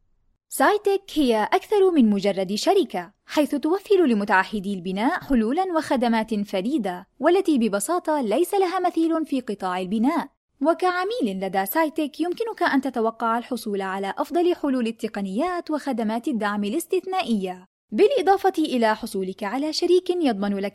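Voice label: Arabic, Saudi Arabia, female, voice over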